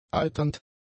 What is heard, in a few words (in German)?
"eiternd", german; adjectives